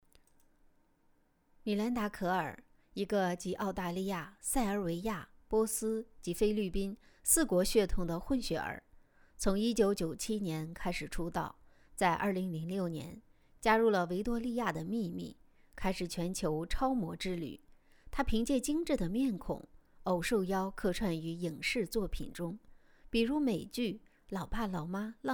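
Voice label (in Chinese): 简介配音试音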